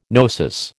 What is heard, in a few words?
"gnosis", American